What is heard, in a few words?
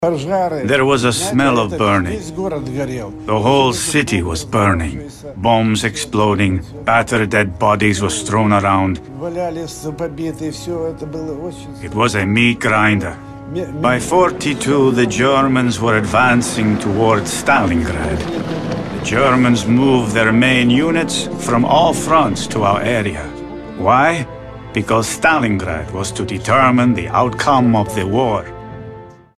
History Channel Dubbing